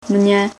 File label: mne, czech